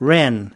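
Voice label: "wren", US English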